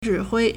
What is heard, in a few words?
"zhi3hui1", Chinese